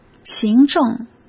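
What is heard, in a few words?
"行政", Mandarin Chinese; hsk; chinese-flashcards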